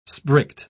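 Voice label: "sprigged", English, British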